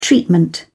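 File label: "treatment", British English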